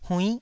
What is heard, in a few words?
"huinn9", Taiwanese syllable